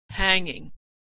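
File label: "hanging", American English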